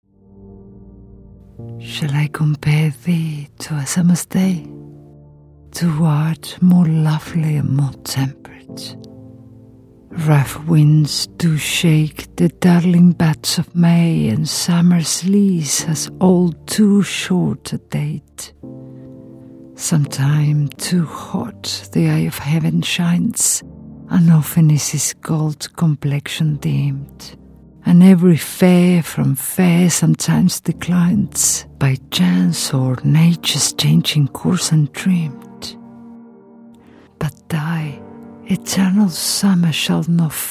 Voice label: spanish latin american